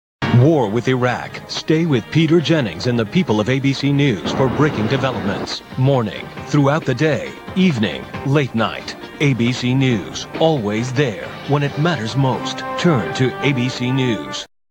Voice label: promo abc news